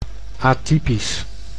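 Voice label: "atypisch", Dutch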